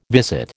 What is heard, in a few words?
"visit", American